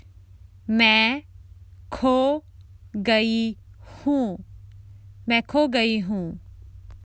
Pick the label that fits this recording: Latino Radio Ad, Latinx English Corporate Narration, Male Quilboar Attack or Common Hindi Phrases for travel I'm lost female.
Common Hindi Phrases for travel I'm lost female